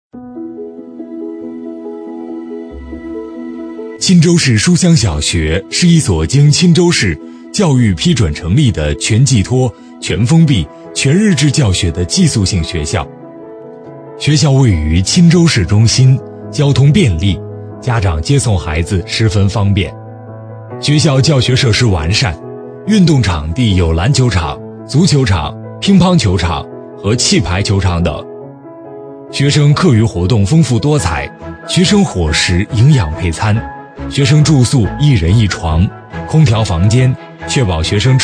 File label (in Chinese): 男 宣传; 钦州市书香小学